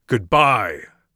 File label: goodbye, Mage Voice; Mage Voice Pack